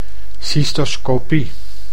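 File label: "cystoscopie", Dutch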